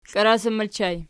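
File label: "klarasan" ergative plural, Archi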